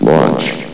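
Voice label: "launch", English, male; cstrike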